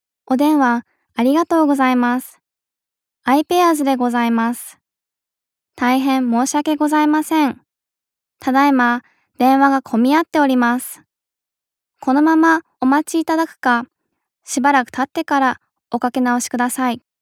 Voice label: jp normal